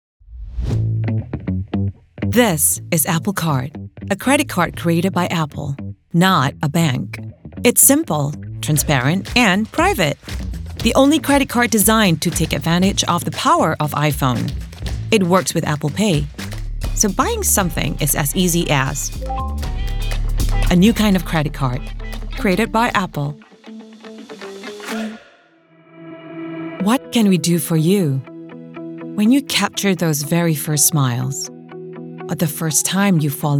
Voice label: female malay slight usa accent compilation